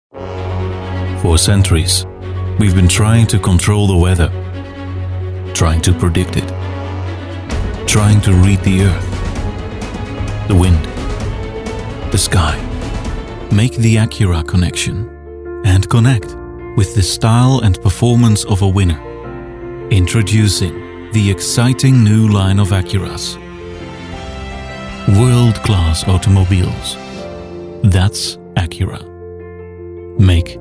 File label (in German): K Eng UK Fremdtake Dynamisch